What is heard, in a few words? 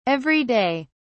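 "every day", British English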